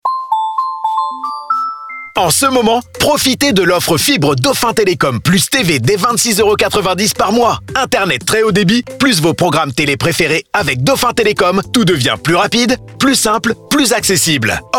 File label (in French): Spot Radio; Dauphin Télécom